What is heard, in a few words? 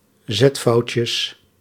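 "zetfoutjes", Dutch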